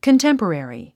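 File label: "contemporary", English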